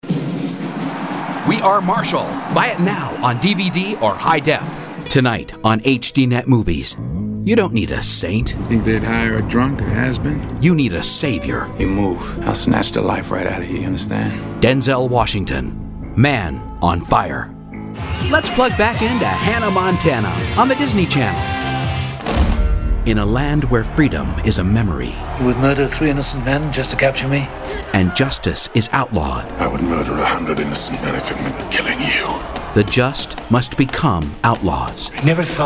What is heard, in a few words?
Promo